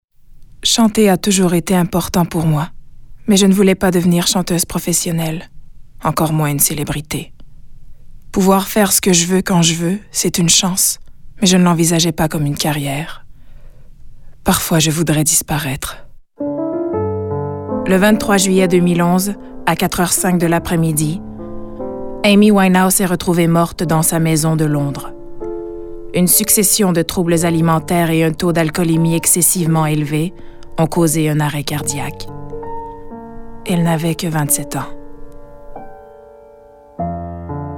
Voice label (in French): Narration